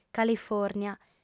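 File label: "califòrnia", female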